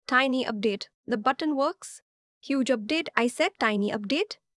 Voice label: Crisp and energetic, good for short reads that need momentum and clarity.; crispenergeticmodern